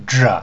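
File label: "zha", Mandarin Chinese pinyin; Mandarin Chinese Pronunciation; pinyin sounds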